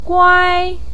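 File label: "guai" first tone, chinese, voice pinyin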